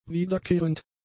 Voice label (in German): "wiederkehrend", German; adjectives